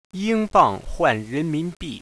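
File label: yingbang huan renminbi, Chinese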